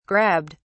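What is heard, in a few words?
"grabbed", English, British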